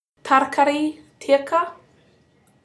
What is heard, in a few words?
tarrkarri tirka, kaurna pronunciation